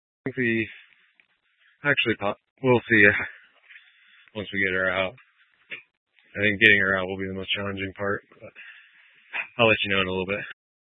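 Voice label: Incident Tactical, scanner audio recording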